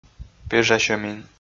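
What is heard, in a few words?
"пежасьӧмин", Komi, male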